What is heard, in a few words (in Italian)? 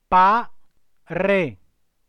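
"pare", italiano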